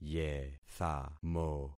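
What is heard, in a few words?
"yethamo", concatenated syllables; Affixing experiment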